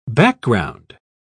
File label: "background", pronounce